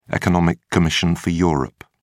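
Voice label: "economic commission for europe", British English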